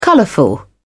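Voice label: "colorful", British English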